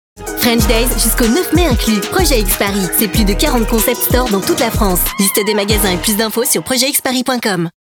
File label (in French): voix off publicitaire commercial; Project X Paris